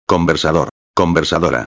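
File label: "conversador a", Spanish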